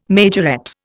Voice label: "majorette", Indian